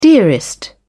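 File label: "dearest", British English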